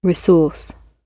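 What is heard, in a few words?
"resource", British English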